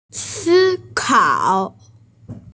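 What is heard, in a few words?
"si kao", Mandarin Chinese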